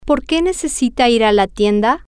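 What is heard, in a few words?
whyDoYouNeedToGoToTheStore, Spanish, female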